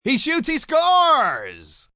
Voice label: He Shoots Scores, announcer, male, hockey; Talking Sound Effects; Sports, umpire, coach

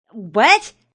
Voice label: Young Lady Says What; Talking

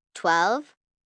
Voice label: Numbers "Twelve", 1 child, girl counting; Talking; Voice Box

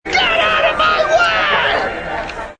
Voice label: "Get Outta Way", Male, Yelling; GetOuttaWay AMB; Voice Box

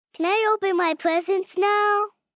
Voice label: Can Open Presents, Questions, 1 girl, 5 yrs.; Holiday

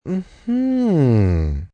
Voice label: Mm Hmm I Get It, male; Reaction

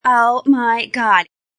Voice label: Female Oh My God, A female says; Talking

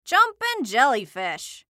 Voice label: Cartoon Voice Female; Cartoon Voices; Blastwave

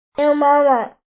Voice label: Toddler "Yo Mama!"; Talking

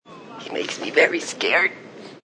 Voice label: Makes Me Scared, Male

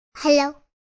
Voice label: Toddler "Hello"; Talking

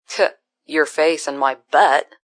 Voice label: Your Face My Butt, Attitude, Female

